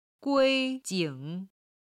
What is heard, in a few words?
"gui1jing3", chinese